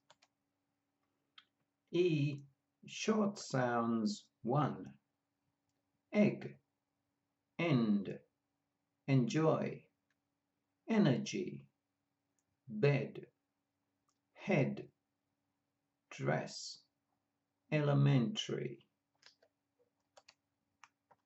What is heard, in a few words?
E short sounds, pronunciation of australian english